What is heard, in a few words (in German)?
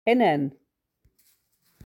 "Hennern" "Hintern"